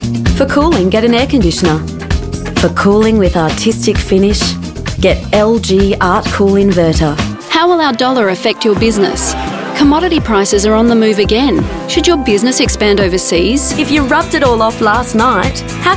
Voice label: australian commercial